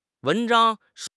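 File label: "wen2 zhang1", Mandarin Chinese; HSK4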